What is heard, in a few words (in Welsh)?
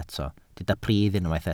"pridd" Welsh